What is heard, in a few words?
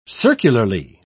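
"circularly", British English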